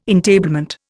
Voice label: "entablement", Indian

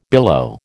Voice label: "billow", American

